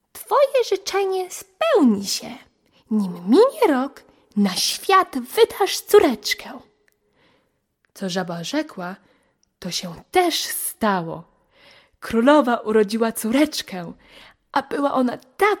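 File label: Polish, characters; GoLocalise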